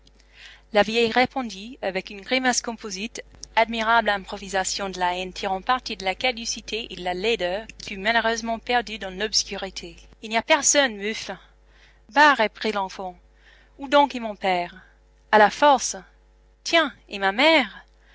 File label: female; mls samples; FrenchAnonymizer